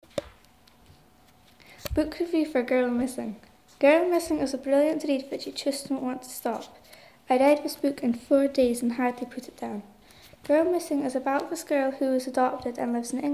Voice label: Louder voice